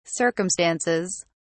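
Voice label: "circumstances", British English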